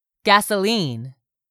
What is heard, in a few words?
"GASOLINE", english; 3000 words